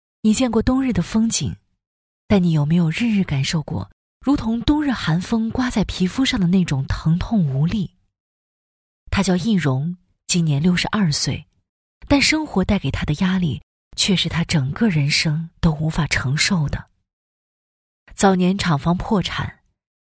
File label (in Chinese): 女 微电影 旁白; 微电影人物; 公益助医VCR